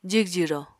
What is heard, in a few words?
"jigjiro", Apatani